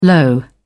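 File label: "low", British English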